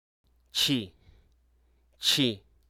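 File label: Hruso Aka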